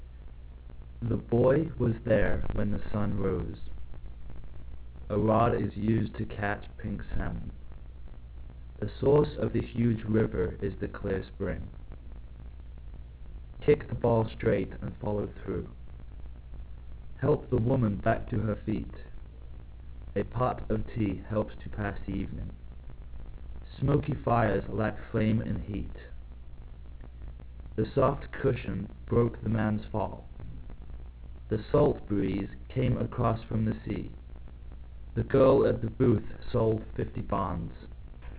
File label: male